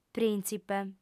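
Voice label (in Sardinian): "prìntzipe", female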